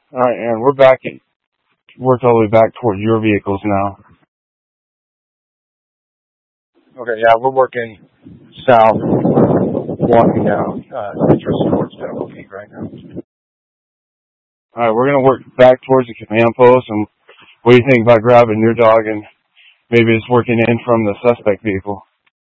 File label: Mutual Aid-Intersystem Interop B, scanner